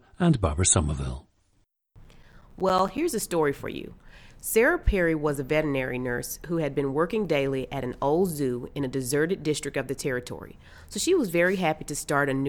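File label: texas, International Dialects of English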